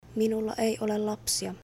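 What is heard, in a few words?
Finnish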